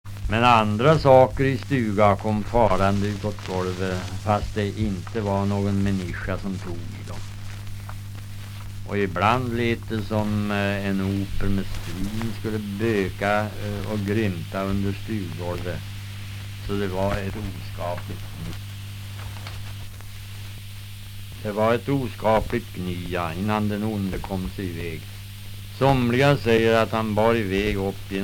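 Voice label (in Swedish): Riala Uppland, dialekter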